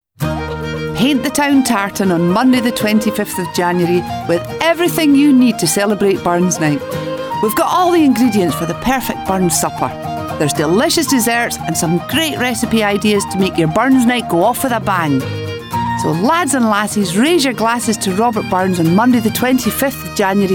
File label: Commercial; CO-OP